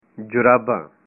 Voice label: socks, punjabi